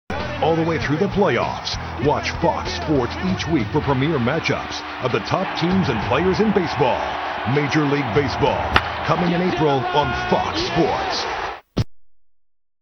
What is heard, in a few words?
promo; FOXsports; 2006 Promo; MLB ON FSN